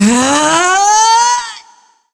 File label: Normal Voice